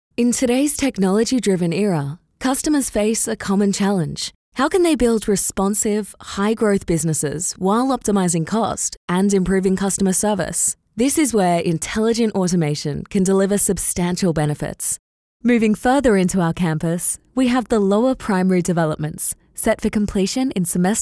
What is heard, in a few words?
Australian Explainer